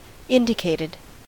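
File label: "indicated", US English; pronunciations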